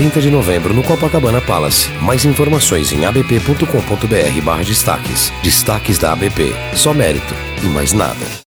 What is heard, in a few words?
spot; ABP MERITO